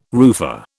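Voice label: "roofer", British English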